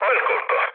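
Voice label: Italian player killed enemy mood low, speech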